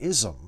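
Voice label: "ism", US English; pronunciations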